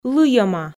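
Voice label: "лыйӧма", Komi, female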